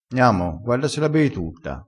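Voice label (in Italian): "bei", vocabolario dialettale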